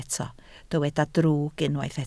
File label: "drwg", Welsh